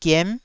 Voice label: "giem9", Taiwanese syllable

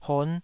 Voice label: "hon5", Taiwanese syllable

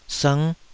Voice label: "sng5", Taiwanese syllable

